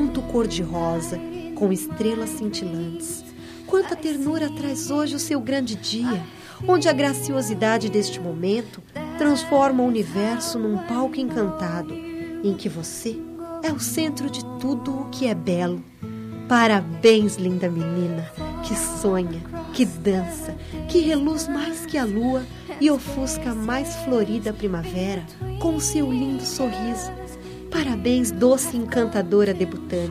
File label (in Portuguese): Aniv. de 15 Anos F Amiga